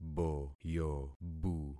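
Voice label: "boyobu", concatenated syllables; Affixing experiment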